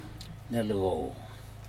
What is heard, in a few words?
"nelco", canoe, Aneityum